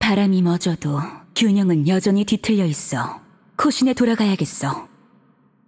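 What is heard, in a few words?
voice over, Korean; Game Outro; bloom minigame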